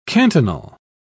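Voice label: "cantonal", British English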